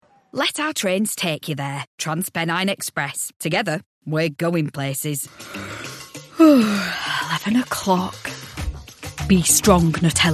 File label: Commerical